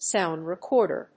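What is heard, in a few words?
"Sound Recorder", English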